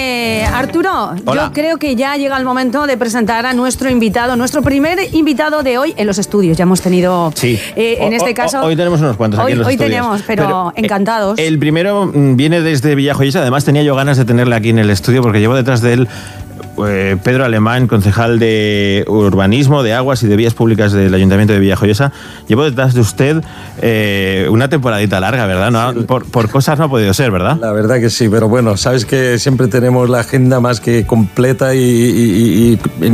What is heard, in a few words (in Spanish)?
entrevista radio; radio sirena COPE